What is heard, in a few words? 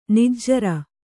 "ನಾಜರು", Kannada